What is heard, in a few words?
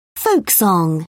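"folk song", British English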